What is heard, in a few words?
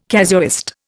"casuist", Indian